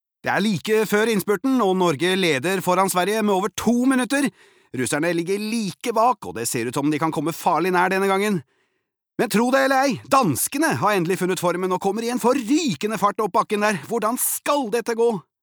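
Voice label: Norwegian dub commentator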